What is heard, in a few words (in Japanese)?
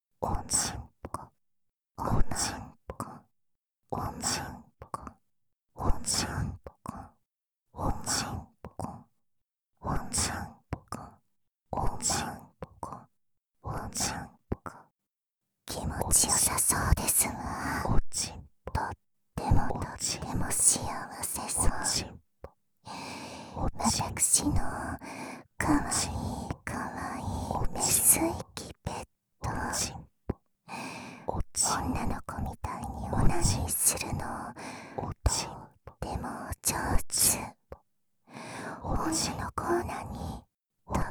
ひたすら淫語囁き; メスイキループ